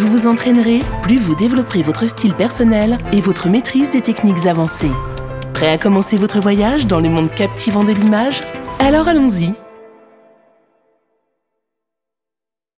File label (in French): Elearning montage video; MST